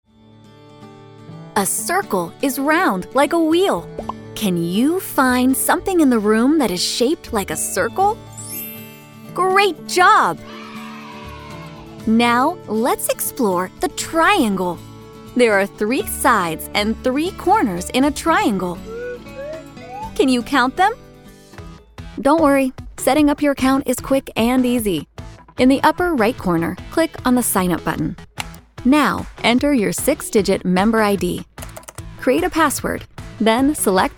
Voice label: english american e-learning